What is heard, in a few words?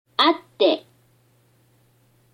"あって", Japanese